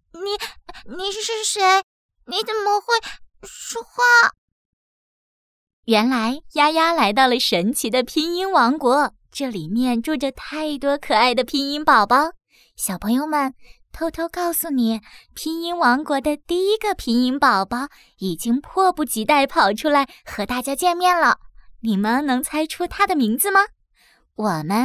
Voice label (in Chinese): 拼音剧本对话稿 试音 男童 老师 妈妈 拼音 短剧配音; 丫丫; 开心老师